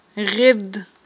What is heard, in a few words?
"гъирд", Aghul